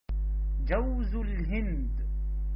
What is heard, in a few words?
Coconut in arabic